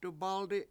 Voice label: "five", Washo; dubAldi7